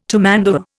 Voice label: "tamandua", Indian